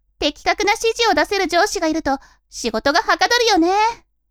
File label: women